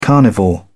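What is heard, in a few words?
"carnivore", British English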